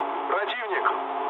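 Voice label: Russian enemy detected mood low, speech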